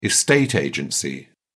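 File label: "estate agency", British English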